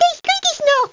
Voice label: threat

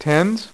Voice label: "TENS", Dealer's Speech; PowerPOKER Demo

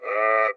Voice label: voice stroke, Tennis

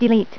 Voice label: "Delete", Voice Female; TalkingKeys

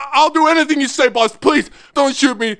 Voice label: WELDER PLEAD; Messiah

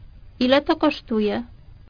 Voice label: HOW MUCH, POLISH

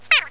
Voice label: "Staroe", Russian

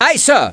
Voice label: balloon Move, german

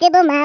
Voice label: missed, Norwegian; Speech; 11khz

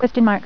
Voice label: "Question Mark", female voice; TalkingKeys

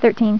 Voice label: "Thirteen", Female; TalkingKeys

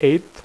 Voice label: "eighth", Dealer's Speech; PowerPOKER Demo

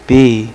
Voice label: "b" male, alphabet; Web Clip Empire 150,000

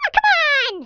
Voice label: "come on then", American; Speech; International

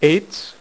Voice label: "EIGHTS", Dealer's Speech; PowerPOKER Demo

